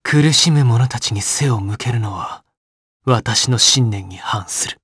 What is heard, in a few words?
vox dia jp; kingsraid; voices heroes jp